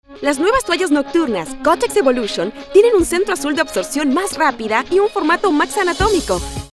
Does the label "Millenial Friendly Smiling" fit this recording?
Yes